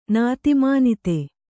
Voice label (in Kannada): "ನಾತಿಮಾನಿತೆ", Kannada